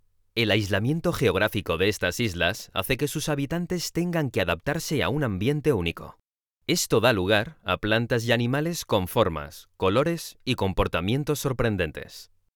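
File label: Spanish, male, audioguide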